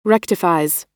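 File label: "rectifies", American English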